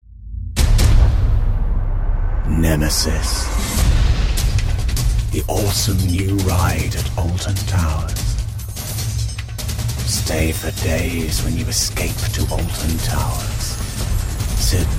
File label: advert; alton towers